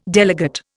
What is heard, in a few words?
"delegate", Indian